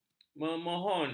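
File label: "memohon", Malay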